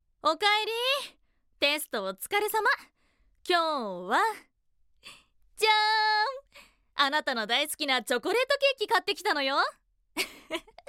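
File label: voice sample, gentle older sister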